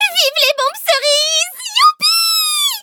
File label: level up, fr